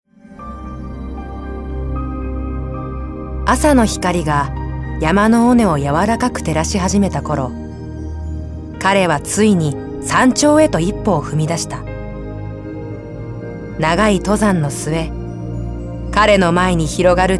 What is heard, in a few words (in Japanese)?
モノローグ 静か